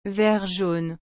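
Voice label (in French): "vert jaune", French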